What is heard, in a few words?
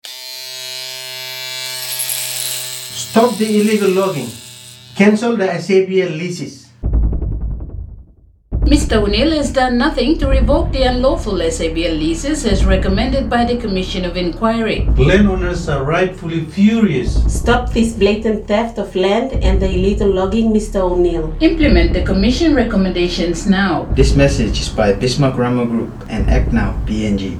advert